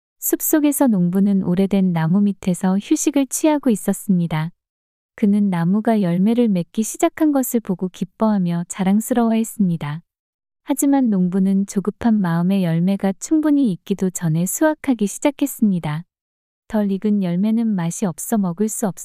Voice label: 이야기 여자; 농부와 나무

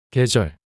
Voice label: "계절" 단어 남자

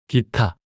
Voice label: "기타" 단어 남자

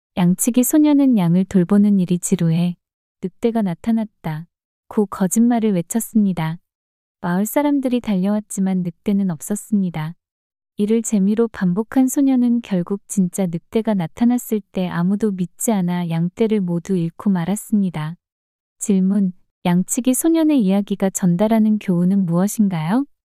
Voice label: 이야기 여자; 양치기 소년의 교훈

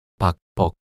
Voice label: "박벅" 낱소리 남자

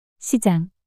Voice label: "시장" 단어 여자

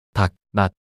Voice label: "닭낫" 낱소리 남자

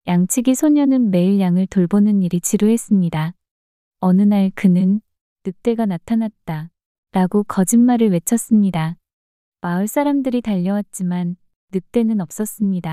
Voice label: 이야기 여자

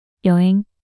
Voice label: "여행" 단어 여자

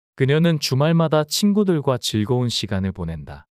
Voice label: 문장 남자; 그녀는 주말마다 친구들과 즐거운 시간을 보낸다

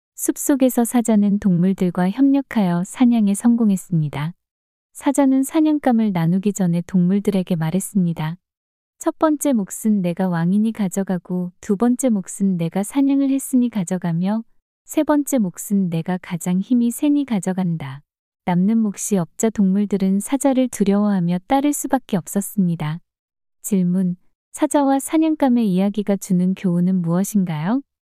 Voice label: 이야기 여자; 사자와 사냥감의 몫

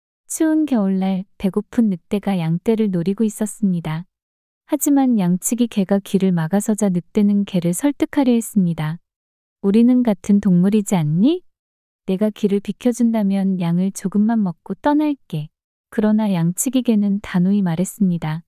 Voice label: 이야기 여자; 늑대와 양치기 개

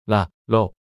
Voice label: "라러" 낱소리 남자

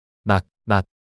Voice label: "낙낫" 낱소리 남자

